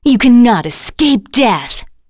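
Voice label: Vox Skill, voices heroes English; Seria; kings raid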